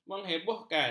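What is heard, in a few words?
"menghebohkan", Malay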